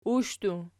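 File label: "ushdu" nominative, Archi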